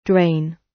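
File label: "drain", British English; Design and innovation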